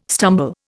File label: "stumble", Indian